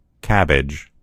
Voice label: "cabbage", en US; gcompris